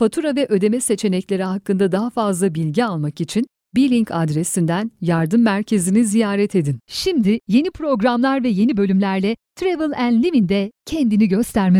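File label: Turkish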